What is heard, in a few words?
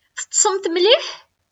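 Kabyle; Teṭṭsemt mliḥ?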